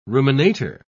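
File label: "ruminator", English, British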